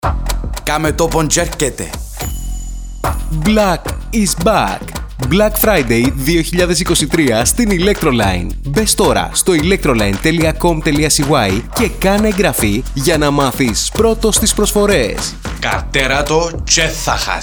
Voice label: Radio; Electroline Black Friday